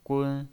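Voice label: "gun", Mandarin Chinese, first tone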